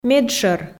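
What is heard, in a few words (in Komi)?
"медшӧр", Komi, female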